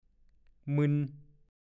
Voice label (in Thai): "มึน", ภาษาโคราช; หน้าด้าน หน้าทน หน่ามึน ก็พูด; ไม่คม ทื่อ